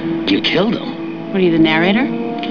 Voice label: faith narrator

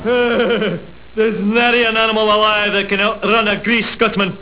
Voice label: scotsman

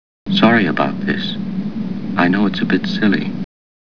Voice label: silly

